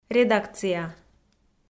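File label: "редакция", Komi, female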